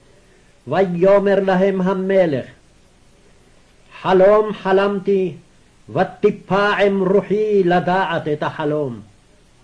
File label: Daniel Reading Practice, Aramaic